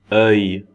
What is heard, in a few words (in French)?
"oeil", francais